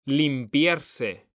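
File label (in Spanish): "limpiarse", Spanish, male; mekanta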